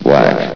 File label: "black", vox; Source Engine; bshift